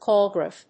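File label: "call graph", English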